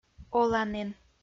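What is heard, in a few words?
"оланін" Komi-Zyrian, female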